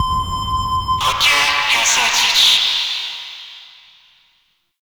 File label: Ship Announcer; Warframe; Ambience; Guild